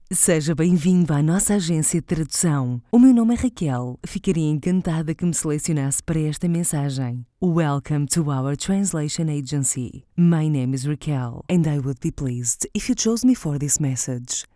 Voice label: female portuguese english with portuguese accent translation